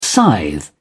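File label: "scythe", English, British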